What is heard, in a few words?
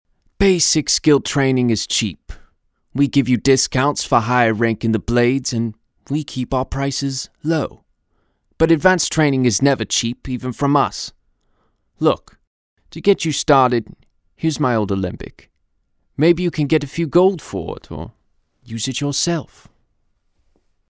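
highelf male voice over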